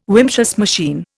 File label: "Wimshurst machine", Indian